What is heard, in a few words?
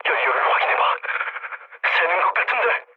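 Korean tank damaged mood low, speech; War Thunder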